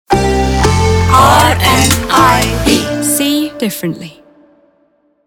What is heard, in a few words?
SONIC LOGO SEE DIFFERENTLY TAGLINE; RNIB